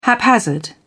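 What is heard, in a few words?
"haphazard", British English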